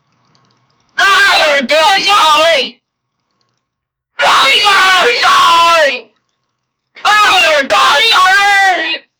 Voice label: the man said can i, screaming

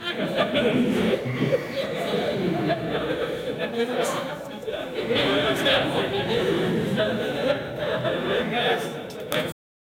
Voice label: agresive conversation

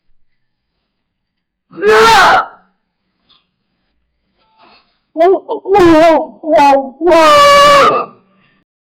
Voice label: male sound say, oh no

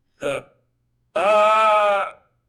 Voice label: serious man afraid sound; anxiety

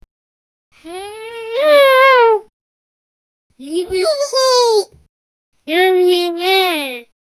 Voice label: a young kid saying wow; children's sounds

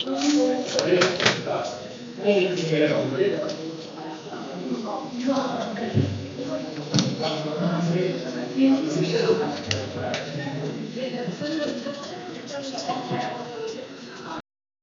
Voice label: french reception sounds i, human voices

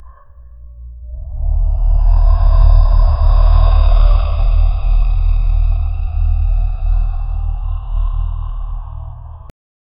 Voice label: demonic voice deep and

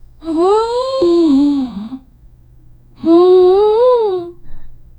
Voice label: a soft and cute female, character

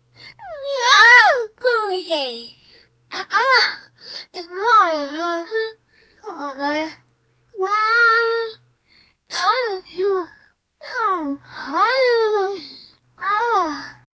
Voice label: anime girl say wow; character voice; anime lolli girl

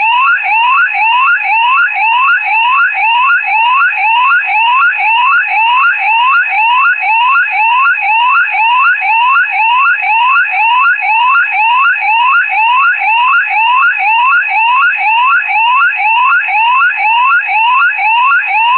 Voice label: a man explains how a; human speech

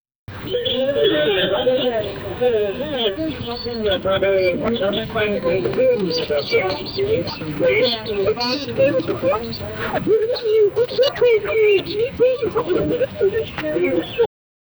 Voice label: aliens voices; aliens voices from nibiru

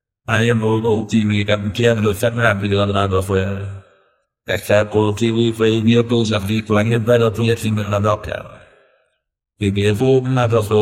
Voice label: year 10000 ad future, language

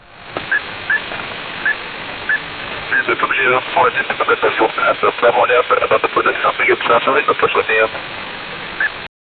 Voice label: radio communication milit, ww2

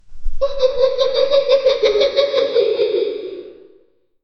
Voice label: horror low voice short la; kids

